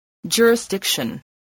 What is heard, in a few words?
"jurisdiction", English